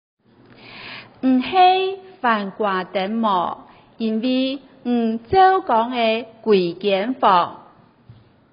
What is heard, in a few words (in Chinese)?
客語 你是南瓜燈嗎